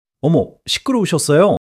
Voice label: fluster

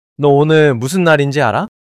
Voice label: neutral

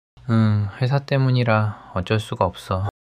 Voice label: sadness

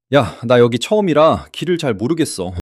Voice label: fluster

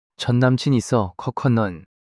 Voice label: fluster

